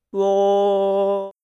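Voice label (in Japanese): "を", Japanese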